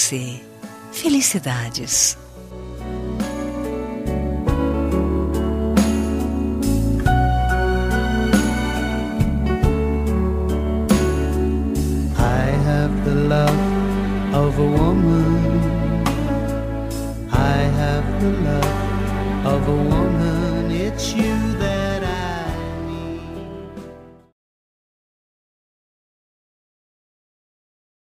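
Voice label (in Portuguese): birthday FILHA DISTANTE singular female, telemensagem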